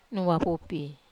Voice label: "wapupi", Wamesa